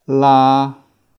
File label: "laa3", Cantonese